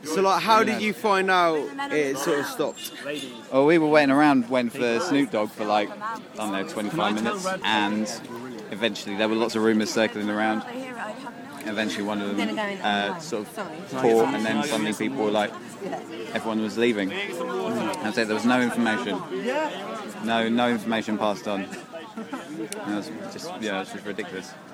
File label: vox pops